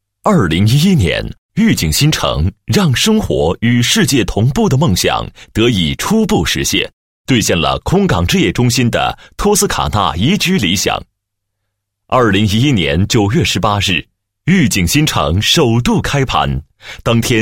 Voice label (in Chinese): 国语男声配音 靓仔; 御景新城地产小专题